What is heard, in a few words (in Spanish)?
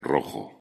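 "rojo" Spanish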